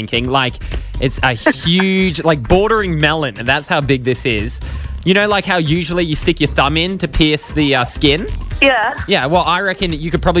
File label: Mandarin